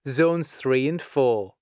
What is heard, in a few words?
Zones 3+4, announcements male